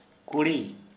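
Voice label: Santali, male, girl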